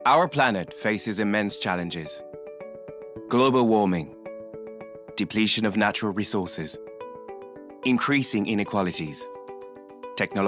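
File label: French Accented English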